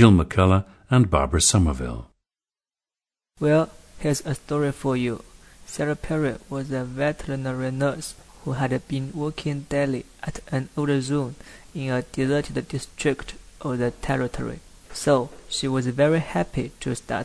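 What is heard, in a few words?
jiangsu, dialects of English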